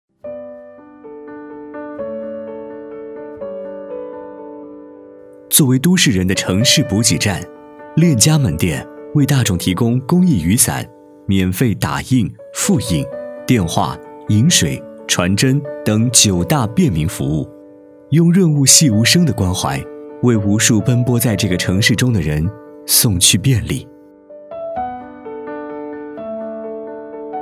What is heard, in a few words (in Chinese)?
男 广告 温情广告; 链家门店